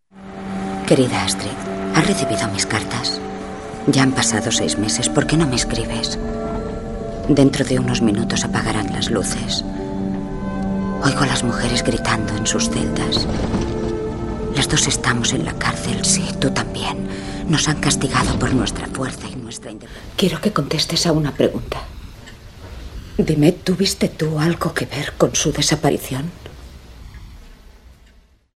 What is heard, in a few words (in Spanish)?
Femenino